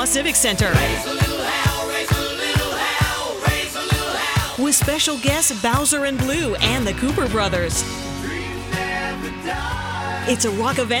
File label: Free Concert Female; CUPE